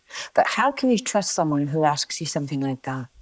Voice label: Voice Acting es